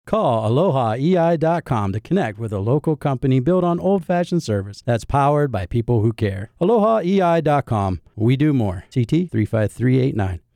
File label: We Do More, radio ads; E-I-Electrical; 10-Liner